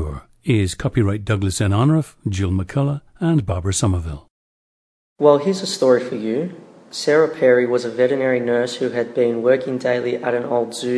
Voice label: australia